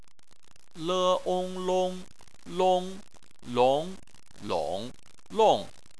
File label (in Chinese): "long", Chinese